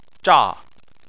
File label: "zha4", Chinese